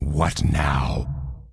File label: Undead Male Warrior NPC Greeting